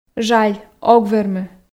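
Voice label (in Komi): "жаль ог вермы", Komi, female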